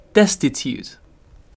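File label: "destitute", British English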